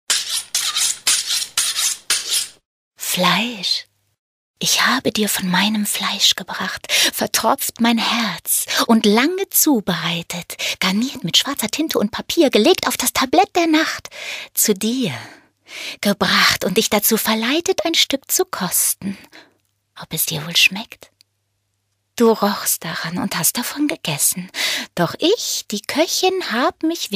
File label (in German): Hörbuch; FLEISCH